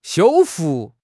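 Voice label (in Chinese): "修复", Chinese; HSK6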